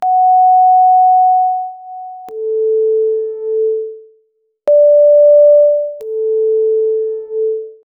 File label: just the airport pa atten